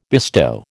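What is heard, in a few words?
"bestow", American English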